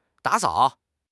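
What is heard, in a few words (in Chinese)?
"da3 sao3", Chinese; HSK; HSK3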